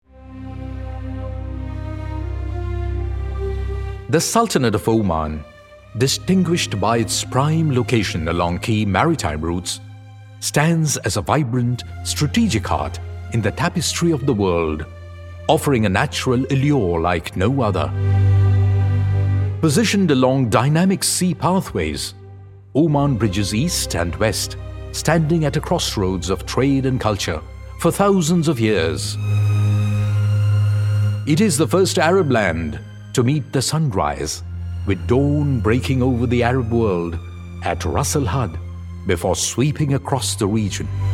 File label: DOCUMENTARY ENGLISH